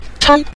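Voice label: "chai", Mandarin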